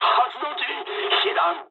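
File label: Japanese damaged engine mood med; War Thunder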